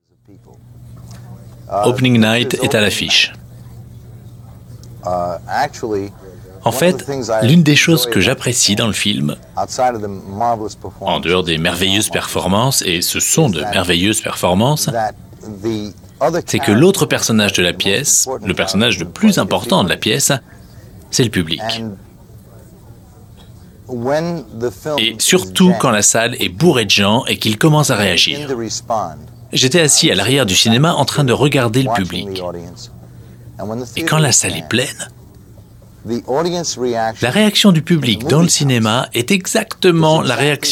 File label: Voice Over; Cassavetes